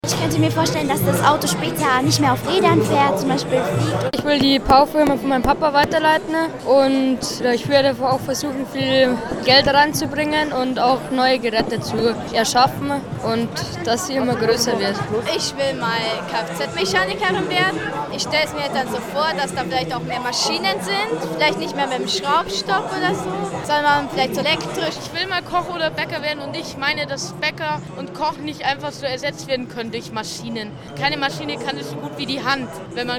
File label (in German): umfrage schuler